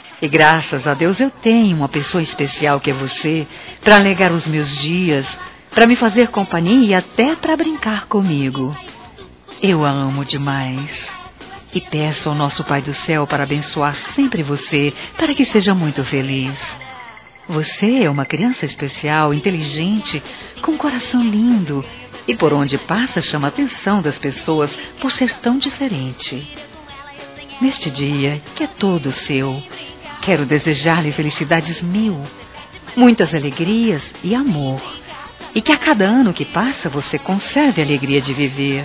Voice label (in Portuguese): Aniversário Neta Feminino; Aniversário Infantil; Telemensagens